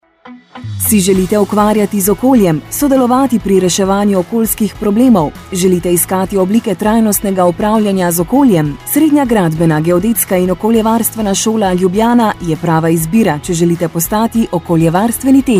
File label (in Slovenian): oglas radio; radio gorenc